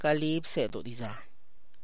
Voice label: Where did you learn Zapotec, Tlacochahuaya Zapotec